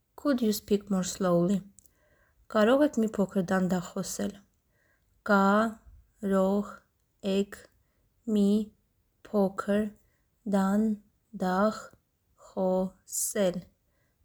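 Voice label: English Could you speak more slowly Armenian Karogh ek mi pokr dandakh khosel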